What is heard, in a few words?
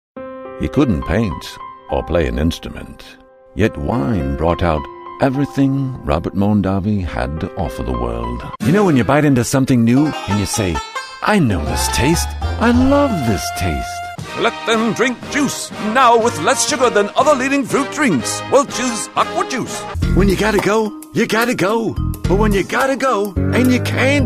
Commercials